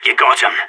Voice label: good shooting, radio; SAS

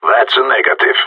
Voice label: negative, radio; GSG9

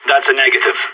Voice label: negative, radio; SAS

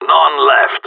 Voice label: no enemies left, radio; GSG9

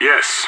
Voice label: affirmative, radio; SAS

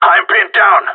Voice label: need help, radio; GSG9

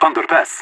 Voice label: underpass, radio; LEET

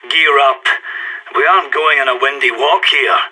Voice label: pep talk, radio; SAS

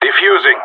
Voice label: defusing bomb, radio; GSG9

